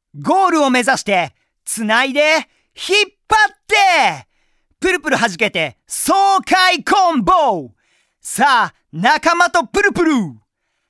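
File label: Narration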